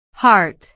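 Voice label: "heart", English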